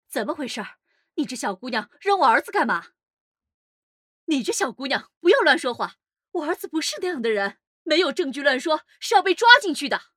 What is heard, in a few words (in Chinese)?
母亲音色